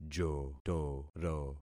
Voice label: "jotoro", concat syllables; Affixing experiment

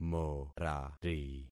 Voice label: "morari", concat syllables; Affixing experiment

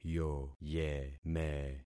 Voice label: "yoyeme", concat syllables; Affixing experiment

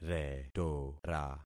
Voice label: "retora", concat syllables; Affixing experiment

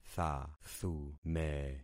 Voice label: "thathume", concat syllables; Affixing experiment

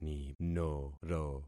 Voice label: "ninoro", concat syllables; Affixing experiment

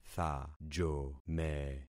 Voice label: "thajome", concat syllables; Affixing experiment

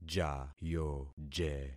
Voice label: "jayoje", concat syllables; Affixing experiment

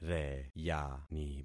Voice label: "reyani", concat syllables; Affixing experiment

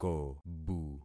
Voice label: "kobu", concat syllables; Affixing experiment

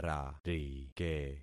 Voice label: "rarike", concat syllables; Affixing experiment

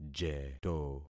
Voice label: "jeto", concat syllables; Affixing experiment

